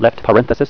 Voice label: "Left Parenthesis", Talking Keys voice